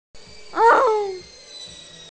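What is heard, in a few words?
"hao3", Mandarin Chinese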